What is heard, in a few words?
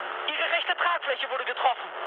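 German right wing mood med, speech; War Thunder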